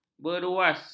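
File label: "beruas", Malay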